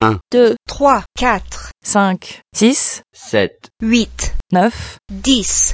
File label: 1 to 10 FR medians; Medians of same set of recordings; French numbers